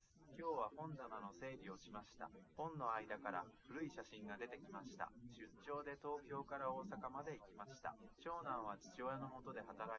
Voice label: male, live rec, 250ms, 5cm; SiSEC08; SiSEC underdetermined